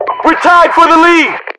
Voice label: tied, voiceovers, US; english